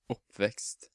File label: "Oppvekst", Norwegian